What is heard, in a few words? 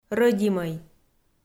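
"рӧдимӧй", Komi, female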